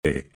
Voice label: Phoneme, Male; Commonscript; Lynguistykon